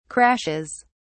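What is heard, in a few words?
"crashes", English, British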